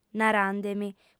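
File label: "narande·mi", Sardinian, female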